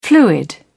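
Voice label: "fluid", British English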